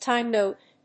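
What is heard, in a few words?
"time note", English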